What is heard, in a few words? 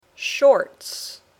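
"shorts", English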